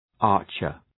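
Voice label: "archer", English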